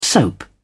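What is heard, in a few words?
"soap", British English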